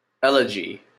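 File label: "elegy", Canadian English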